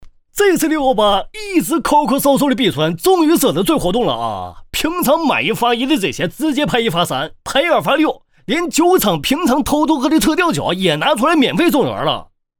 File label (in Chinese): 东北方言 男国 方言 东北话 广告; 方言配音